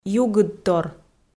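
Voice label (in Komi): "югыдтор", Komi, female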